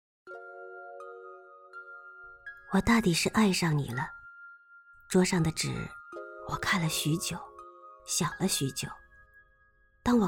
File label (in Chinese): 夜读美文; 你的名字在字里行间